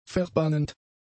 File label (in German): "verbannend", German; adjectives